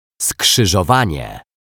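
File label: "skrzyzowanie", Polish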